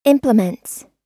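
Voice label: "implements", US English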